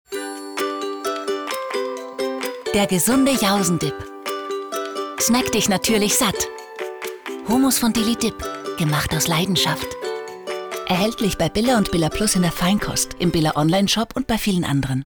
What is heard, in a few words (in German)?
Frau OFF